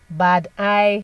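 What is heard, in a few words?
"badeye", Nigerian Pidgin, female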